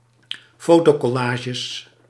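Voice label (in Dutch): "fotocollages", Dutch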